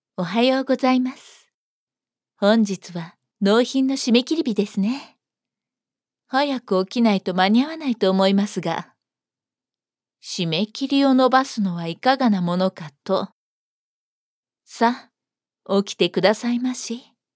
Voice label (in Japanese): メガネメイド